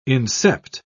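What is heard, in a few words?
"incept", British English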